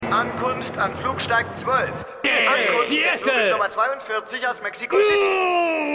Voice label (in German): Flughafendurchsage; Seinfeld